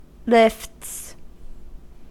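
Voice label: "lifts", American English; pronunciations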